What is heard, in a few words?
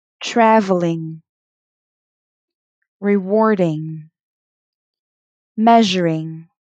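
Tongue Twister ng, pronunciation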